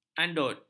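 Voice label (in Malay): "anod", Malay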